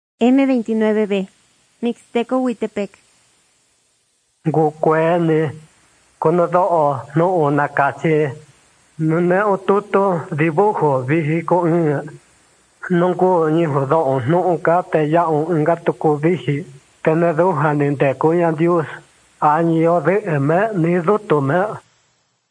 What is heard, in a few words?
mixtec diagnostic spanish mexico